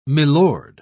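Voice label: "milord", British English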